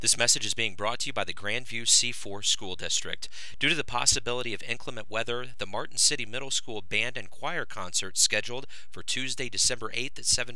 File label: concert cancelled, PSAs